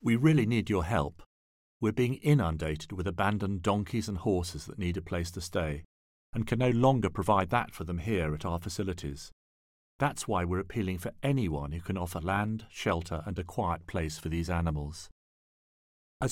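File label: English UK, charity; GoLocalise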